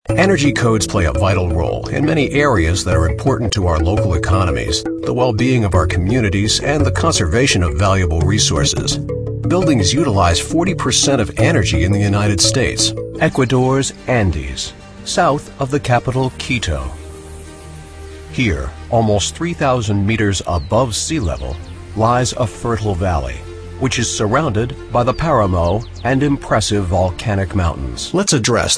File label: male narration